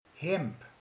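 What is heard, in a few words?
"ing", Hungarian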